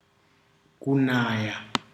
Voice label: "rain", ndau

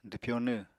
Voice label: "dwpyonw", Apatani

